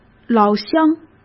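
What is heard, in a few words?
"老乡", Mandarin Chinese; chinese-flashcards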